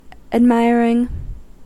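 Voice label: "admiring", US English; pronunciations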